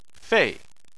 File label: "fei4", Chinese; Chinese Surnames